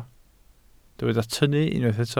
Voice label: "tynnu", Welsh